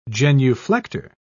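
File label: "genuflector", British English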